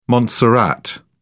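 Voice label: "montserrat", British English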